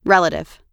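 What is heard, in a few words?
"relative", US English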